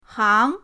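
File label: "hang", Mandarin pinyin, second tone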